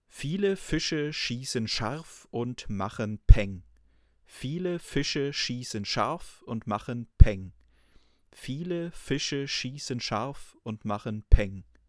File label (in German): sibilanten plosive; beyerdynamic fox